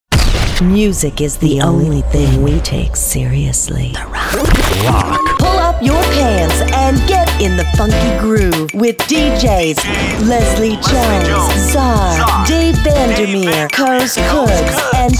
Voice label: Imaging

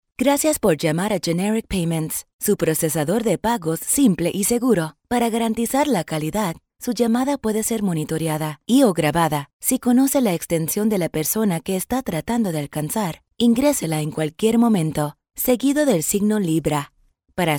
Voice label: Friendly Neutral Spanish IVR

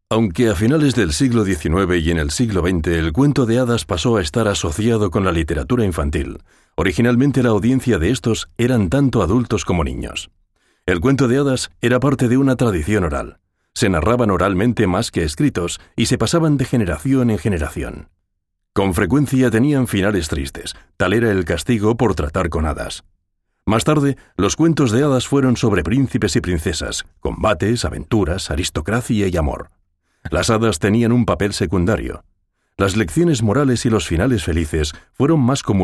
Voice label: Narrat castilian

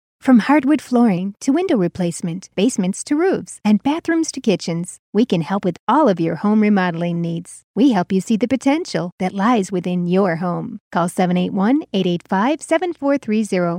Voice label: Dry Voice Commercials

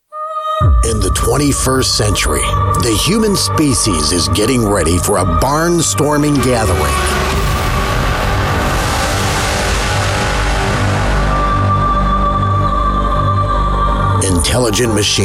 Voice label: Trailer; Hyperbeat

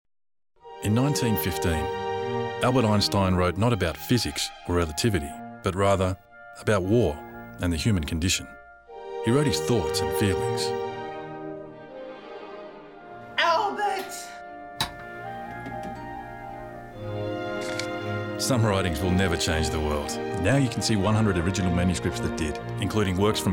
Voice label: TV Spots

